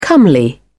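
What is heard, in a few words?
"comely", British English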